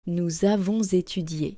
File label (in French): "nous avons étudié", French